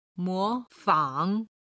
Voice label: "mo fang", Chinese

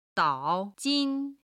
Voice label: "dao3 jin1", Chinese

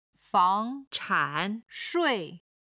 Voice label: "fang2chan4shui4", Chinese

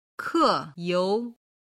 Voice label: "keyou", chinese

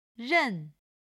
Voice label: "ren4", Chinese

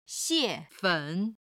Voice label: "xiefen", chinese

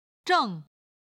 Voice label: "zheng4", chinese

